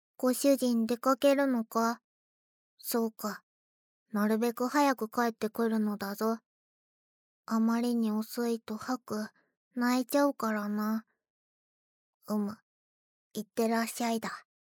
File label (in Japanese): シチュエーションボイス